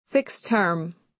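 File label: "fixed term", American English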